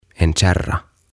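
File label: "En Kärra", Swedish; Kärry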